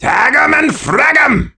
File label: mecha taunt